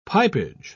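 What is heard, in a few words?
"pipage", British English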